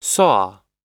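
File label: "saw", American English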